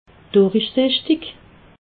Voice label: "durichsichtig", alsace

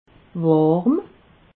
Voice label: "warm", alsace

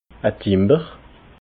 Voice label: "timber", alsace

